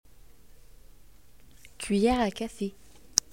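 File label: "tea spoon", French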